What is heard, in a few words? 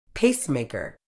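"pacemaker", medical English, British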